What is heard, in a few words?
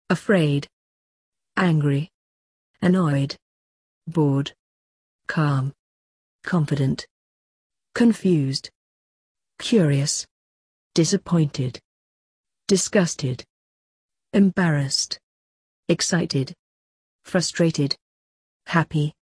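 British; emotions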